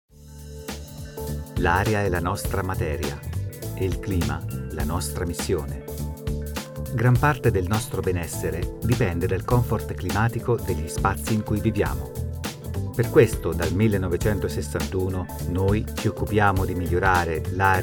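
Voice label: Italian, Corporate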